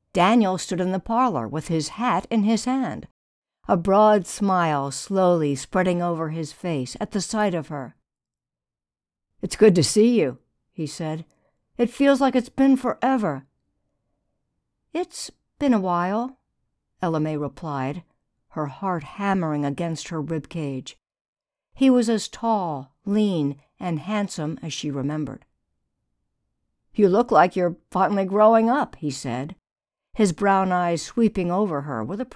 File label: Historical romance young adult Male Female